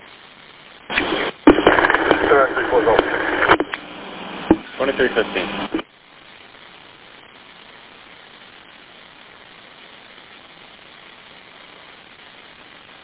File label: Police Radio